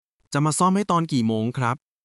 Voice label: Thai, basic Thai phrases

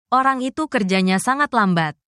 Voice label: negative phrases indonesian, insults

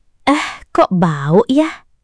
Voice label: indonesian phrases

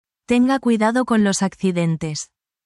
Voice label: spanish, spanish phrases

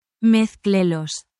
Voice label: spanish, spanish phrases

